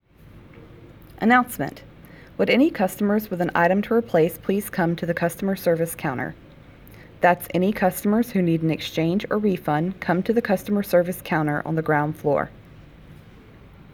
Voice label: Conversation